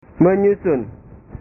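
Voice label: "menyusun", Indonesian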